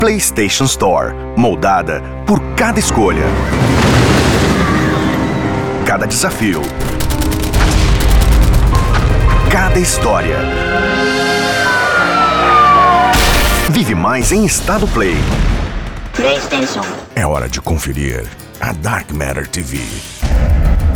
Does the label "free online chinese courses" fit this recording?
No